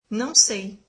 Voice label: brazilian I don't know